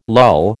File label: "lull", American